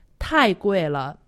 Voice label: "tai gui le", Chinese; Survival Chinese